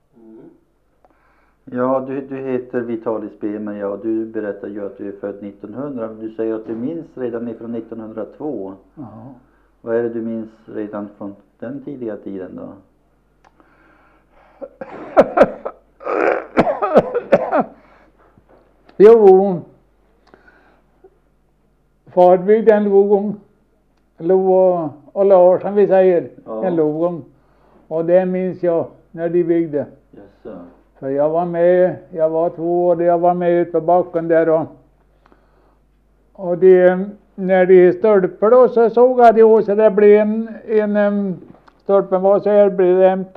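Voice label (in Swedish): Botilsäter Värmland, dialekter; Folkminnen; Svenska sagor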